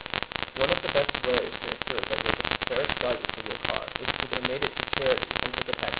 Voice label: noisy